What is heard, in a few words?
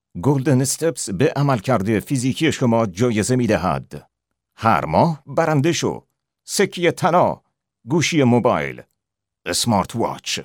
persian farsi voiceover locutor persa